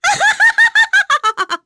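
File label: Vox Happy, English; Nicky; kings raid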